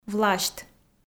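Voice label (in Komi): "власьт", Komi, female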